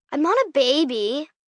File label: Whine Not A Baby, Whine, 1 girl, 10 yrs; Whining